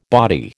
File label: "body", American